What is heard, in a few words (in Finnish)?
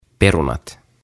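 "Perunat", suomeksi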